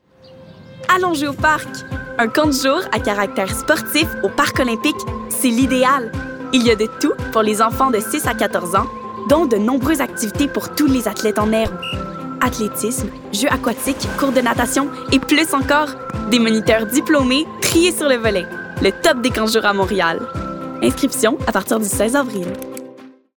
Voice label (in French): voix publicitaires; Camp d'ete